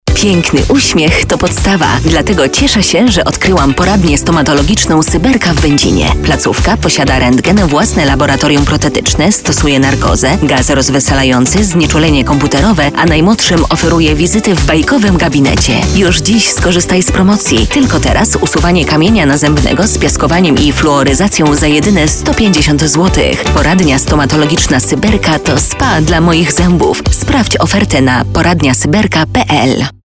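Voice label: Polish, female, commercial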